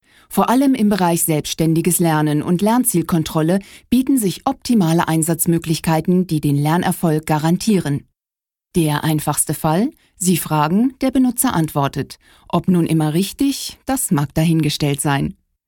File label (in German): lernprogramm intro